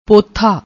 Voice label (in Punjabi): "pothaa", Punjabi